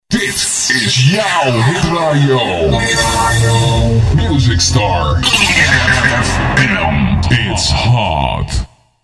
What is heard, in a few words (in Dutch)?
Dit is jou hit radio hot, jingles